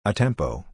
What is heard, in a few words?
"a tempo", word